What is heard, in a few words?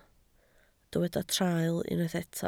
"traul", Welsh